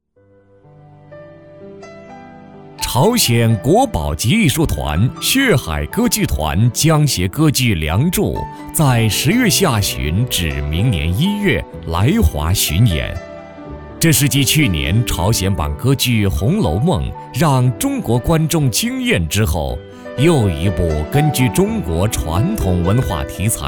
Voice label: 男100-歌剧梁祝演出推广介绍, 国语男声配音

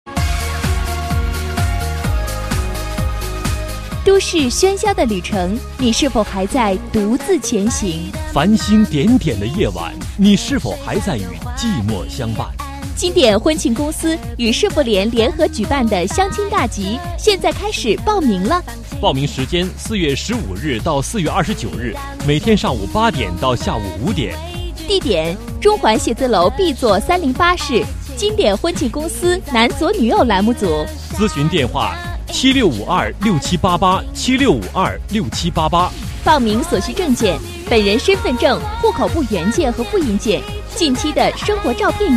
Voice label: 男 相亲广告 年轻阳光, 北方经济型

